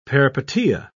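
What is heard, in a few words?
"peripeteia", British English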